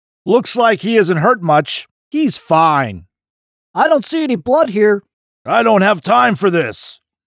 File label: MALE